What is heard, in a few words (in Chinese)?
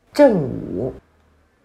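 "正午", Chinese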